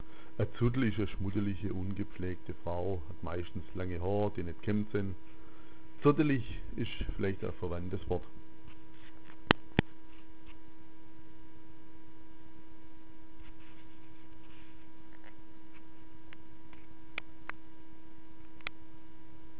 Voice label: "zuddl", schwaebisch

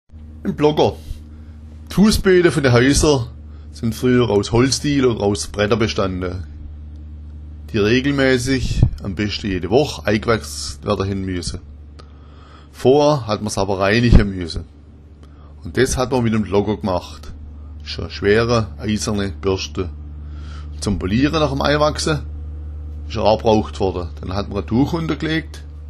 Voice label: blocker, schwaebisch

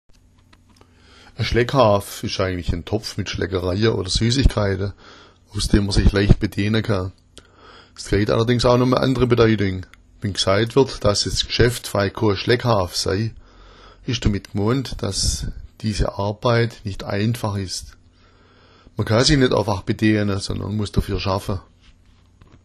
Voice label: "schleckhaf", schwaebisch

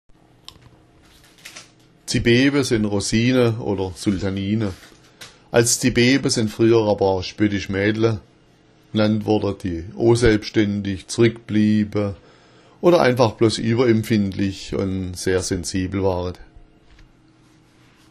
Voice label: zibeba, schwaebisch

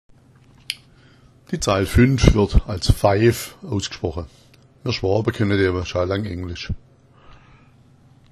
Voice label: faif, schwaebisch

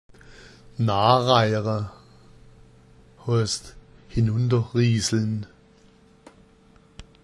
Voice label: na raira, schwaebisch

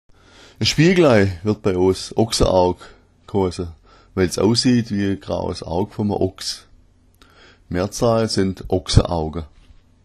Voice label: "ogsaaug", schwaebisch

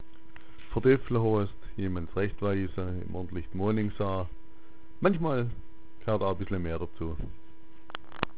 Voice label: verdeffla, schwaebisch

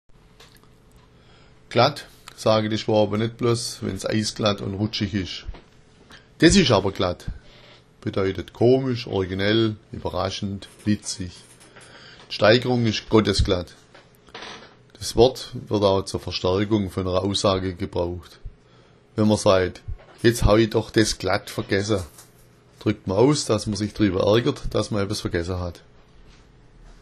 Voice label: glatt, schwaebisch

